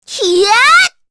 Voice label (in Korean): Vox Casting, kr; Shamilla; kingsraid; voices heroes